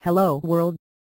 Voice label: no filters, robot